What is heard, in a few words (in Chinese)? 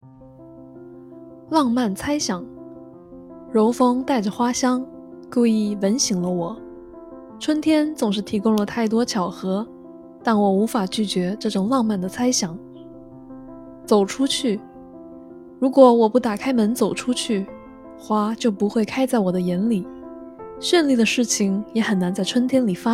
春天诗集试音